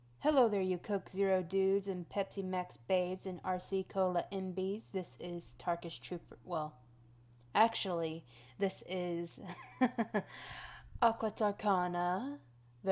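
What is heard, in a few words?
Female Verification; GWAudible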